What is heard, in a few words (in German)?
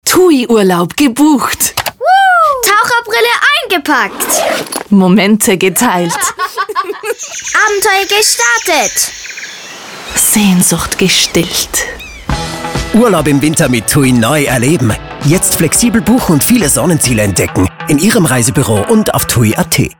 Hörfunkspot Familie; (Familienspot), 2022; Tui Reisen